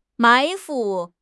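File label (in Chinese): "埋伏", Chinese; HSK6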